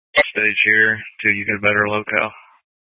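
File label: Incident Tac 1, Scanner audio recording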